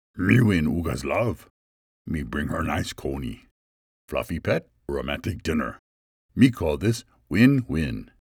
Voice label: caveman